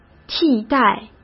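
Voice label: "替代", Mandarin Chinese; hsk; chinese flashcards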